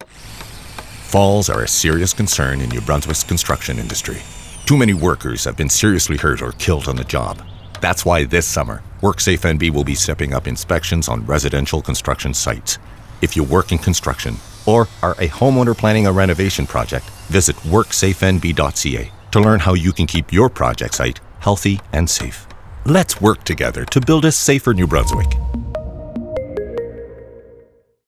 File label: fall protection campaign radio ad